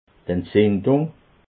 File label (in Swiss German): "entzindung", alsace